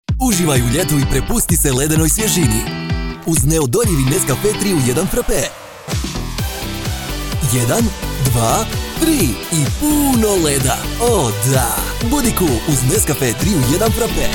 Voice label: Croatian M Advert; voice over